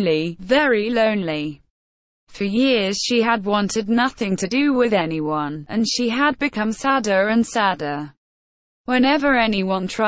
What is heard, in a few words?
story; Lala the Whale; OstadEnglish